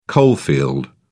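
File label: "coalfield", British English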